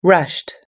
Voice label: "rushed", us english

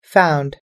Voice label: "found", us english

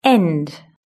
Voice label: "end", british english

